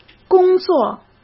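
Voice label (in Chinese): "工作", Mandarin; chinese-flashcards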